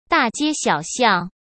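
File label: "大街小巷", Mandarin Chinese; hsk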